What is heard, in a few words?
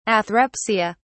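"athrepsia", English, uk British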